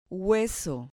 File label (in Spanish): "hueso", Spanish